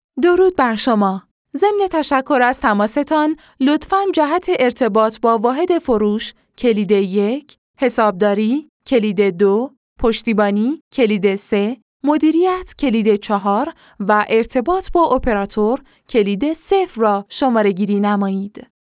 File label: Women